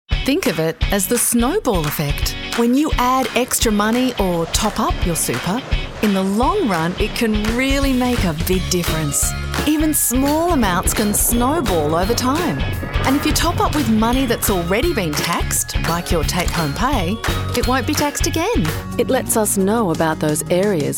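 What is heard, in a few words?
english australian female commercial; voice demos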